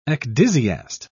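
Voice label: "ecdysiast", British English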